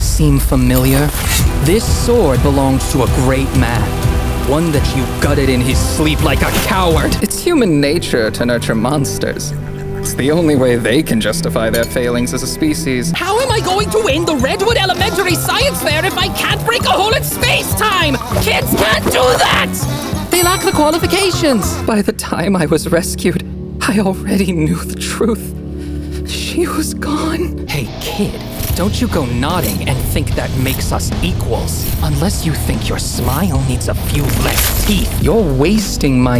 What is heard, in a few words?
Character